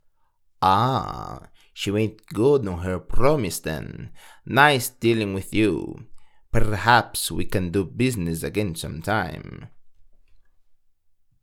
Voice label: sample, positive, khajiit voice auditions; drozhirr

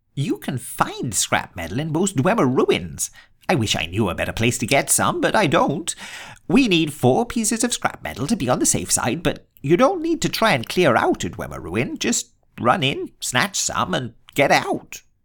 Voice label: bosmer, wood elf voice auditions

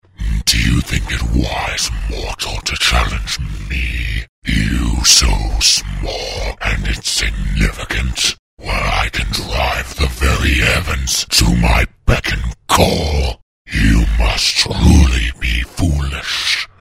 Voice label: epic deep intimidating voice

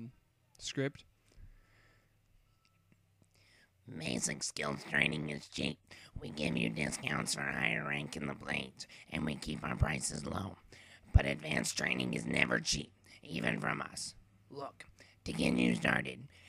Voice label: voice unique

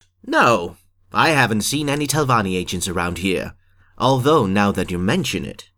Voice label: wood elf voice; alveleg talvanni agents

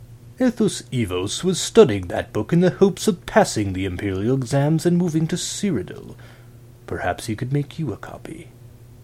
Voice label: imperial voice

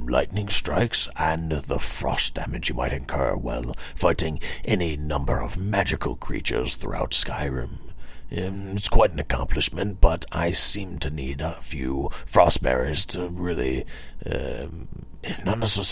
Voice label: old alchemy guy

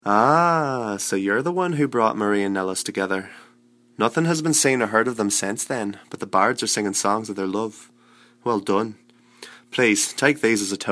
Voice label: breton male audition irish

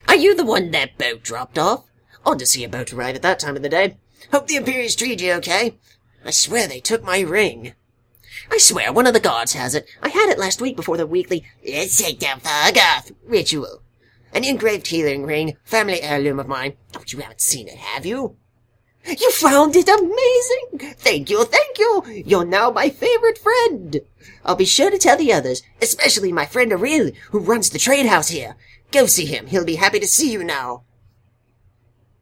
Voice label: wood elf annoying

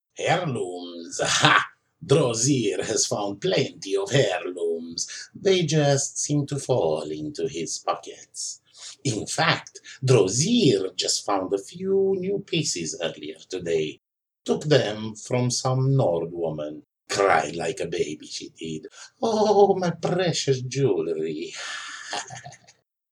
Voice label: khajiit audition

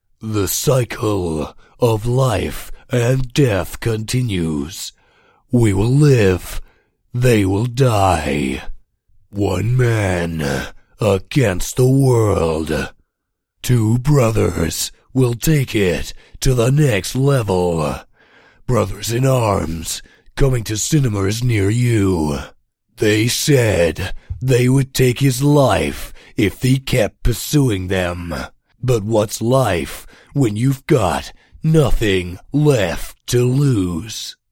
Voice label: my sick trailer voice

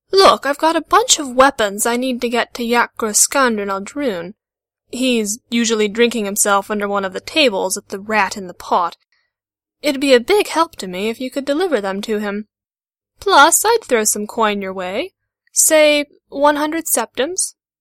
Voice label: imperial female sample, voice auditions

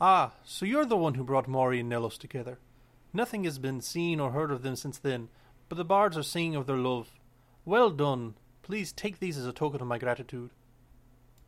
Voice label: breton sample, breton voice auditions

